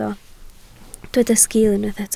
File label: "sgiil", Welsh